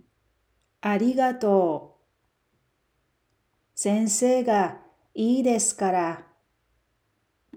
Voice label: japanese Dialogue; 7.0 Expanding Your Japanese Toolkit (2)